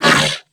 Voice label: Pain, Grineer Male; New War; Narmer

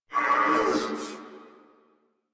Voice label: Struggle Crewmen, Battle; Warframe; Corpus Crewmen; Crewman C

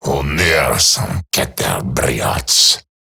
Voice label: Patrol Eximus Grineer Male; Battle Barks

